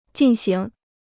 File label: "进行", Chinese